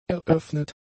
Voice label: "eröffnet", German; adjectives